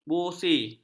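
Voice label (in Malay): "busi", Malay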